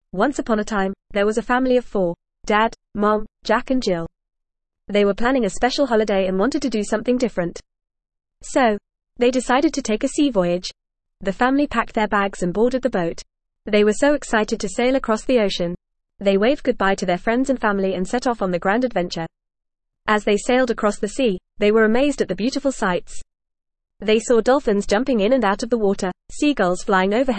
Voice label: ESL Short Stories for Kids FAST reading; The Exciting Sea Voyage